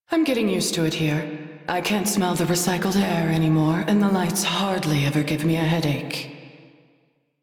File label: Crew Idle, Female; Railjack Crew; New Loka